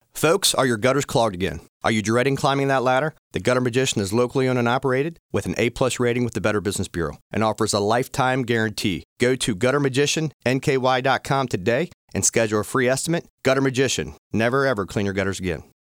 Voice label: dry voice